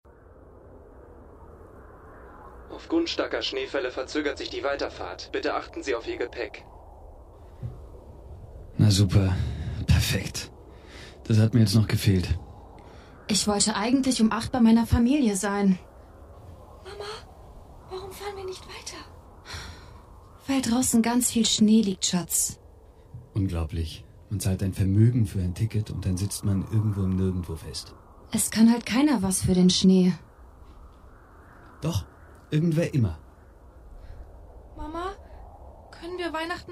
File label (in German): Hoerspieldemo; Weihnachtszug